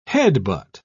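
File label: "headbutt", British English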